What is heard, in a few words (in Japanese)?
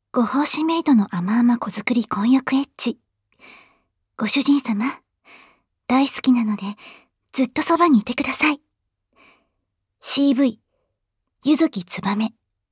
タイトルコール, ASMR